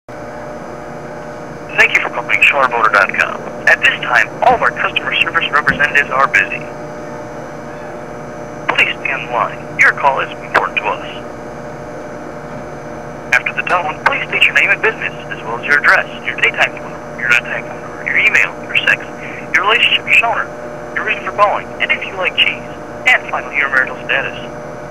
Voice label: Voicemail Greeting